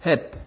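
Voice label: "help", Nigerian Pidgin, male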